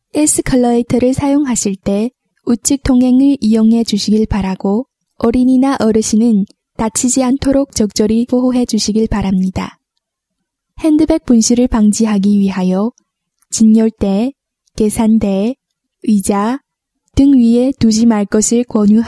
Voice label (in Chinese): 韩语, 商场广播